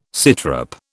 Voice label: "sitrep", British

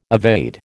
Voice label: "evade", American

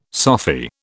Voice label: "Sophy", British

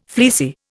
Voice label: "fleecy", Indian

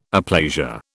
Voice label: "aplasia", British

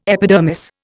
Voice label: "epidermis", Indian

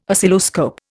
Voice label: "Oscilloscope", Indian

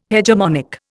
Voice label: "hegemonic", Indian

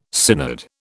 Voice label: "synod", British

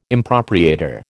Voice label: "impropriator", American

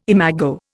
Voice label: "imago", Indian

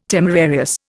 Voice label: "temerarious", Indian

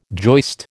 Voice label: "joist", American

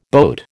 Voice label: "boat", American

